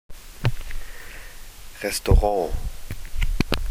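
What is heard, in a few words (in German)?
"Restaurant", German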